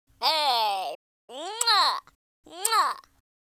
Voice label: happy, speech, US Sports